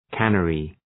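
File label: "cannery", English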